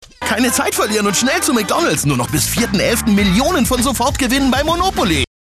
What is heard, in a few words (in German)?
Fremdtake Lebendig